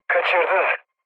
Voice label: bomb failure, mood low, speech tur; War Thunder

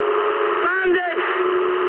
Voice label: eng enemy detected mood high, speech eng

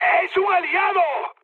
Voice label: player friendly fire, mood high, speech; War Thunder

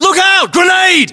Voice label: inform incoming grenade, battlechatter, uk; english; localized english

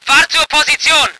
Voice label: voice ger vehicle

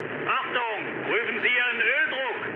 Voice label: damaged oil, mood low, speech ge; War Thunder

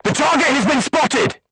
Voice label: voice message target lock, crew tanks portuguese

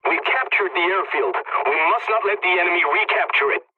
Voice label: pilot capture ground ally, english; War Thunder

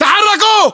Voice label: order move generic, battle chatter, english

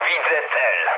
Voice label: enemy detected mood low, speech pol; War Thunder

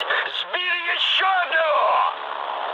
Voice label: player killed enemy, mood high, speech ru

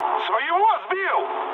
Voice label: ru player killed ally mood med; War Thunder

